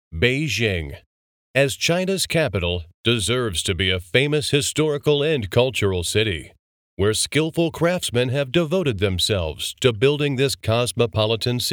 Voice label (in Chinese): 纯英文版